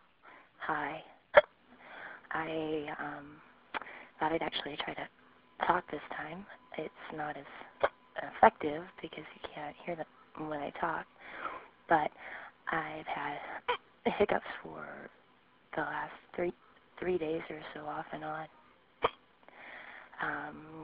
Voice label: phone msg